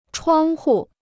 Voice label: "窗户", Chinese

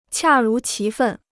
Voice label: "恰如其分", Chinese

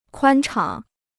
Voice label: "宽敞", Chinese

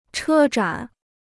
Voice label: "车展", Chinese

